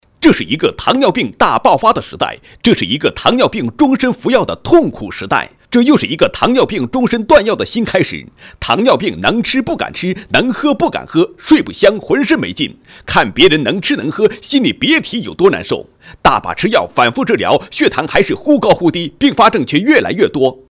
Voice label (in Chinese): 男 高音 直销医疗广告; 高音经济型; 糖尿病